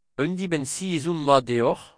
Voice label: have a nice weekend, Breton; Un dibenn-sizhun mat deoc’h!